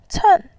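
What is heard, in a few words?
"cen", Mandarin Chinese, tone 4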